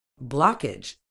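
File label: "blockage", British English; ˈblɒk.ɪdʒ; BLOCK-ij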